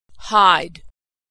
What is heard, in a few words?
verb "hide", pronunciation